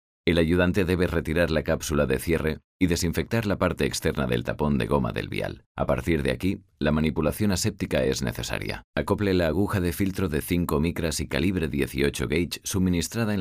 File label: locutor spanish voiceover tutorial; locutor de manuales y tutoriales de uso